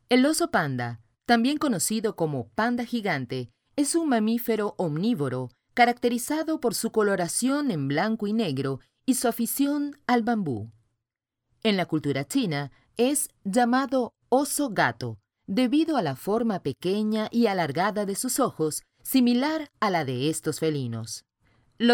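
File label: Spanish Latin America female narrative; spanish americas voice over talent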